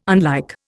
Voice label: "unlike", Indian

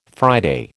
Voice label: "Friday", American

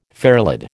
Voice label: "fairlead", American

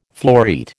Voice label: "floreat", American

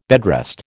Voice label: "bedrest", American

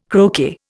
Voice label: "croquet", Indian

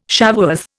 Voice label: "Shavuoth", Indian

